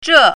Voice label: "zhe4", Chinese pinyin

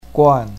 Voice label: "guan4", Chinese pinyin

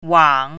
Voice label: "wang3", Chinese pinyin

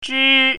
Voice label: "zhi1", Chinese pinyin

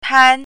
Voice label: "pan1", Chinese pinyin

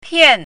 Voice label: "pian4", Chinese pinyin

